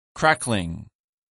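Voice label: "crackling", English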